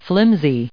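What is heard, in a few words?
"flimsy", US English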